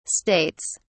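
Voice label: "states", British English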